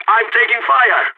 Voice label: radio taking fire, balkan; vo